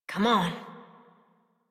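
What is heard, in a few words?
horse start adult, English; Warframe; Duviri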